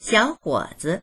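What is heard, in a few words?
"xiao3huo3zi", Mandarin Chinese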